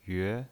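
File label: "yue" Mandarin Chinese pinyin voice, second tone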